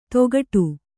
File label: "ತೊಗಡು", Kannada